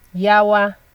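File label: "yawa", Nigerian Pidgin, female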